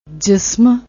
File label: "jism", Punjabi